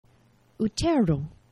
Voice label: "utero", Esperanto; geneva